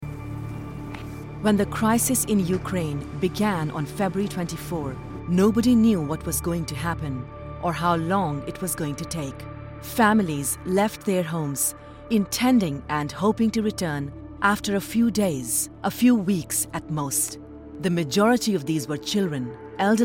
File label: Narration; IRC Ukraine